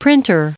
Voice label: "printer", en-us recite